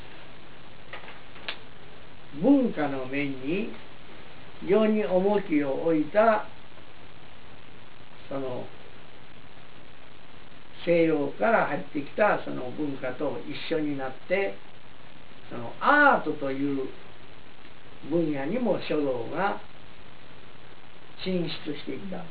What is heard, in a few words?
calligraphy lecture; Asia; Japan; Calligrapher